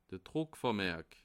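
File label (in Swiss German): "druckvermerik", Bas Rhin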